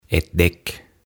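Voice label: "Ett däck", Swedish; Rengas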